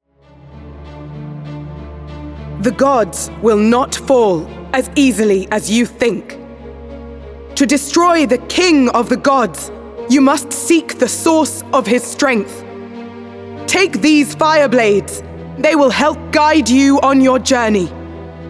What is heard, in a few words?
Character RP